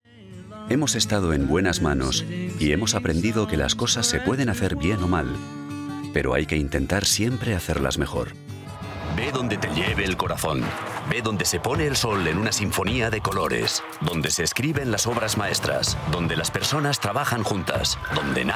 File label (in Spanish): spanish spain male generic